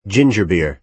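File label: "ginger beer", American English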